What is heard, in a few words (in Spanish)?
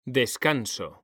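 "descanso", Spanish; mekanta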